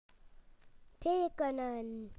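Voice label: "to draw", British English; PictureDictionary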